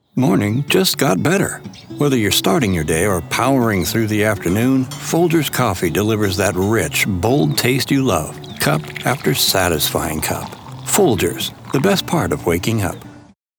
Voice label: TV spot; Folgers